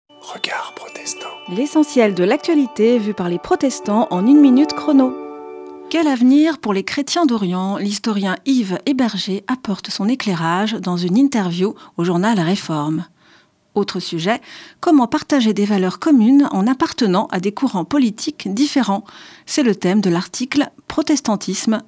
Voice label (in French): Spot radio; Regards protestants